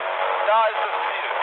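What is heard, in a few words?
German near waypoint mood low, speech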